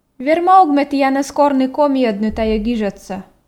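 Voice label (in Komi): верма-ог ме тіянӧс корны комиӧдны тайӧ гижӧдсӧ, Komi, female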